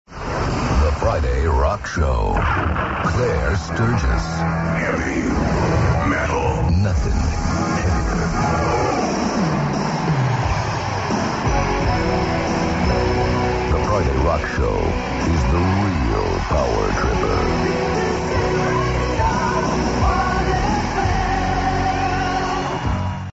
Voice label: friday rock show intro; claire sturgess